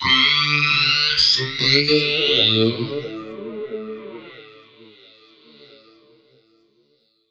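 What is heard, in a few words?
effects talk, Funky Vocals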